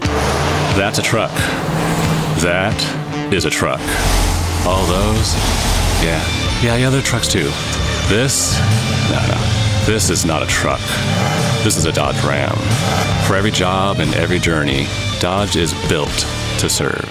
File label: Spot; Dodge